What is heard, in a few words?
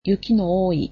"snowy", japanese